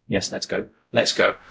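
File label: Voice Acting Spanish, male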